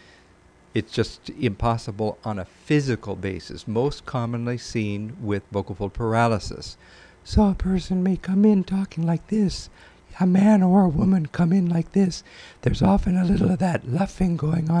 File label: Obligatory falsetto